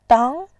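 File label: "dang", Mandarin Chinese, second tone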